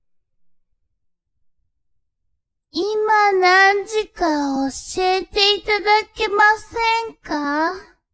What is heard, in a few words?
woman